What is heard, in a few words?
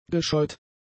"gescheut", German; adjectives